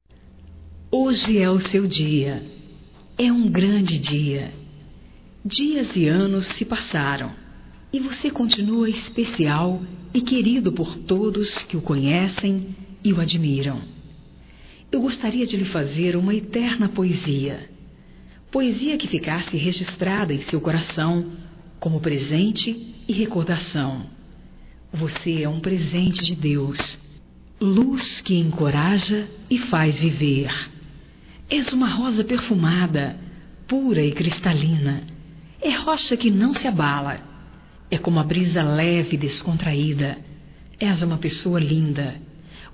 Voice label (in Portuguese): IRMAO, Telemensagens, Aniversarios, fem